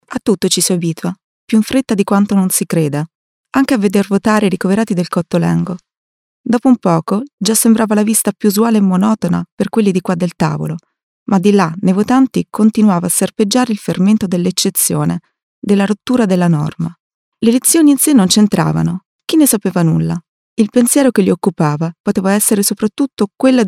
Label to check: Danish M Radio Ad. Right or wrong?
Wrong